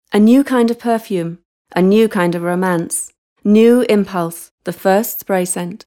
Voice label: Bajada, Ingles (Britanico)